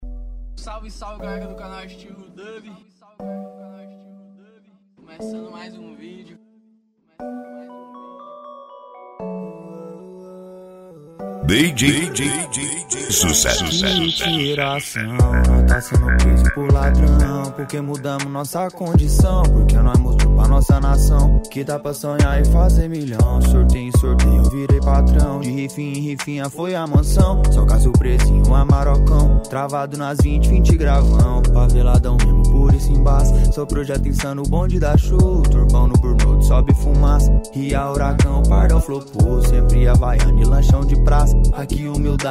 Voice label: rap